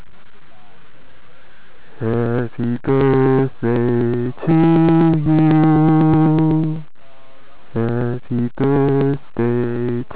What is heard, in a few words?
8KHz